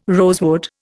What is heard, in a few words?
"rosewood", Indian